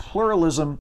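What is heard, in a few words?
"pluralism", English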